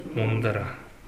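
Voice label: "mondara", Minamiyamagata dialect Japanese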